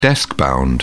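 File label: "deskbound", British English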